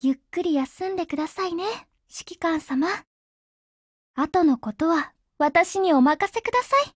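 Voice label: dialogue, Japanese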